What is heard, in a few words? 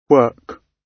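"work", British English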